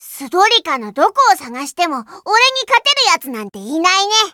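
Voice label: victory, Japanese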